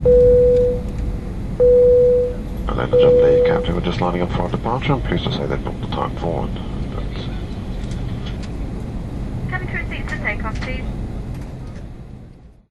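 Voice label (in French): Commandant de bord, French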